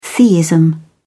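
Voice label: "theism", British English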